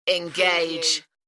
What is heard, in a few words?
"Engage", UK female; Tech / Sci-fi; beanstalk audio